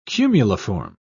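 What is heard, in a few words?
"cumuliform", British English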